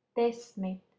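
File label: "desmit" Latvian, female, 10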